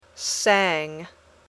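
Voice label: "sang", English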